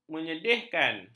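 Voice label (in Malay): "menyedihkan", Malay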